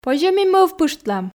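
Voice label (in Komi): позьӧ ми мӧвпыштлам, Komi, female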